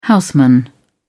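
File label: "houseman", British English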